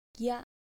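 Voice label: "gya", Japanese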